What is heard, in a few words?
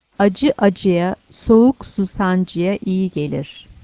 aci phrase, essential turkish words phrases